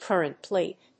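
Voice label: "current plate", English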